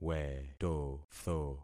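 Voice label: "wetotho", concatenated syllables; Affixing experiment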